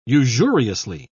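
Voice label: "usuriously", British English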